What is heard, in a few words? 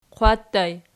"qwattu", Archi